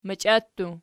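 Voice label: "machia", plural, Archi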